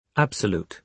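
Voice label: "absolute", British English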